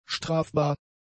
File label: "strafbar", German; adjectives